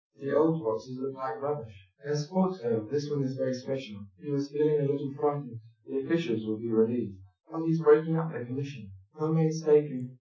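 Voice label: male speech; SiSEC10; underdetermined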